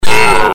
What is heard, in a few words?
evil comply; CAC ASSETS